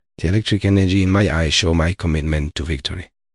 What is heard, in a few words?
happy, speech; fertig classic games; balam